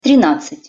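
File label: "trinadcat", Russian pronunciation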